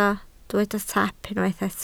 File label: "taap", Welsh